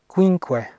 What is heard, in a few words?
"quinque", Latin